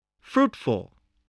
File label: "fruitful", English voice, spelling